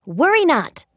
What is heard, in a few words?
VO en